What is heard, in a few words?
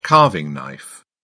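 "carving knife", English, British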